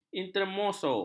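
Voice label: "intermeso", Malay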